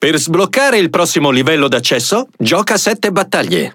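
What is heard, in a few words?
Speech Access Level Unlock, Italian; World Of Warships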